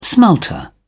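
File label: "smelter", British English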